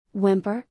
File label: "whimper", English; звуки животных на английском; испуганная; Salli